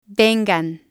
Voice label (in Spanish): "tengan", spanish